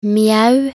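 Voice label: "mjau", Norwegian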